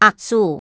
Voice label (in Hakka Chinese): "扼手", 客語